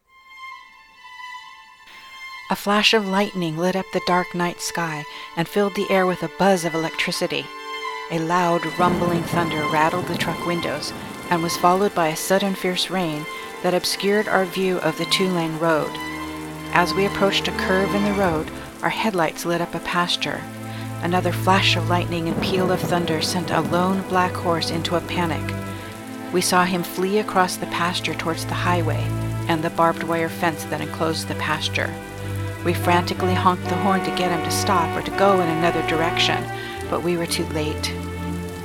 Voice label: the rescue excerpt narr music sfx